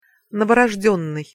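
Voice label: "novorozdennyj", Russian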